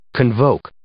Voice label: "convoke", English